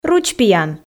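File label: "ручпиян", Komi, female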